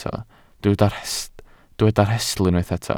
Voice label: "rhestl", Welsh